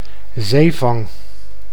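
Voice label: "Zeevang", Dutch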